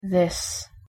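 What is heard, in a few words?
pronunciation "this", English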